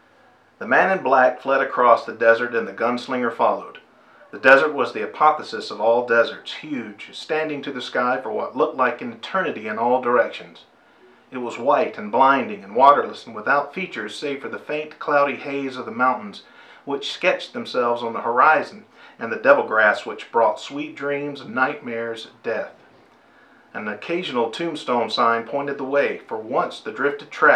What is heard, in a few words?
mic test 6 feet away; Rode